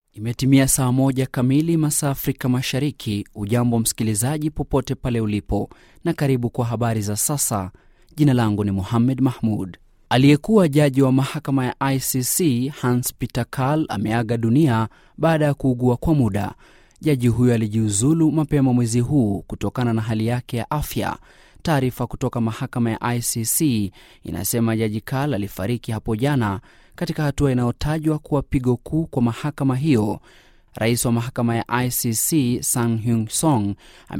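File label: Swahili, radio; GoLocalise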